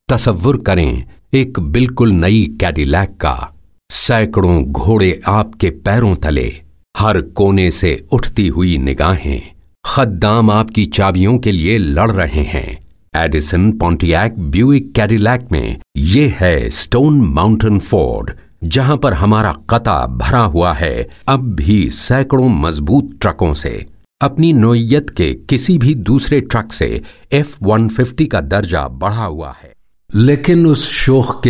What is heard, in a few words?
Urdu, India Male